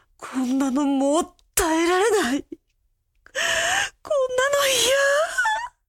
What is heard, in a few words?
Awaken Babble; Zara